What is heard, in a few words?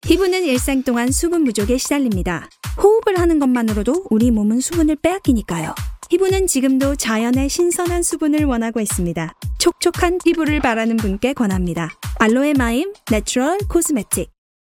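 Korean Commercial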